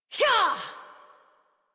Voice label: Horse Sprint Adult; Warframe; Duviri